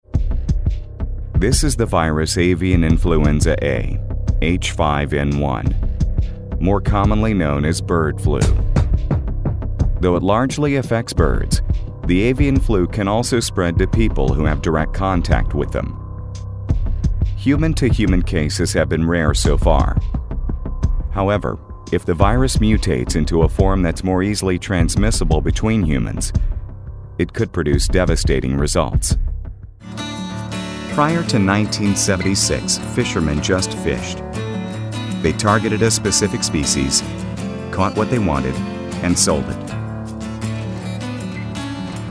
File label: Documentary